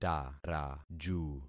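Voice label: "taraju", concatenated syllables; Affixing experiment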